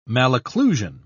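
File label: "malocclusion", English, uk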